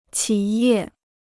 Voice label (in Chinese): "企业", Chinese